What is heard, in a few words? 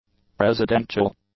"presidential", english; adjectives